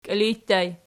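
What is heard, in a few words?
"kiilij" ergative, Archi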